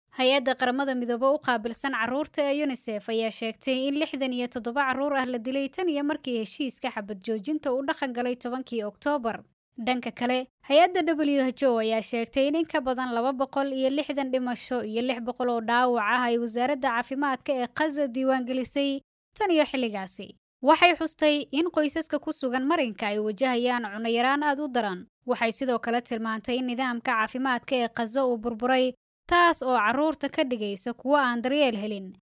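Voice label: Somali female voice over, narrative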